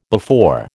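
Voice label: "before", American English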